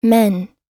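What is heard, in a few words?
"men", US English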